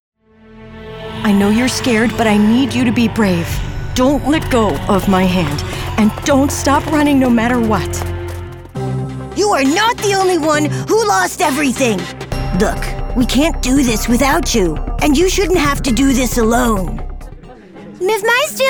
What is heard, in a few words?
Animation Video Game